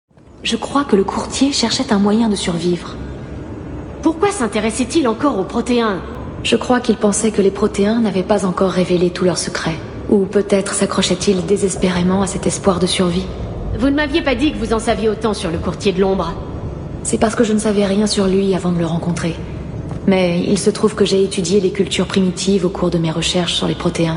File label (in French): Jeu Video voix; Liara; Mass Effect 3